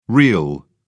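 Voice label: "reel", English, British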